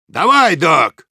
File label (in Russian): activate charge, ru; «Давай, док!»; Soldier